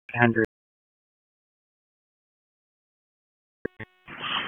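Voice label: EMS DISP, radio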